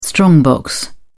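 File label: "strongbox", British English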